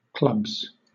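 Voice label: "clubs", British English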